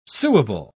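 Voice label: "suable", British English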